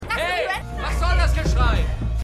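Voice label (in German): Wütender Nachbar; The Flight Attendant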